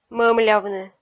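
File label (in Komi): "мӧмлявны", Komi, female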